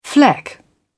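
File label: "fleck", British English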